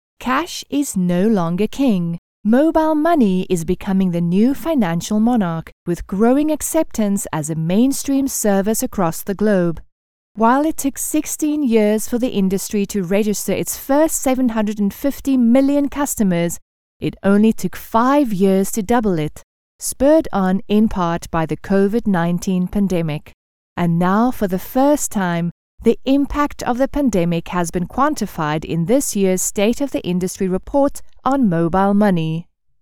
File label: english south african corporate videos